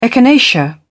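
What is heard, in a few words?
"echinacea", British English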